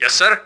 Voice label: yes sir, ru